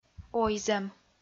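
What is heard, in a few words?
"ойзӧм", Komi, female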